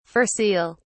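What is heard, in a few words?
"fur seal", British English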